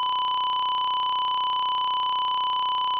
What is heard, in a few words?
Voice, 1 bit